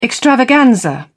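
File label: "extravaganza", British English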